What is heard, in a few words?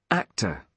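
"actor", British English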